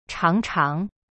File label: "尝尝" chang chang, chinese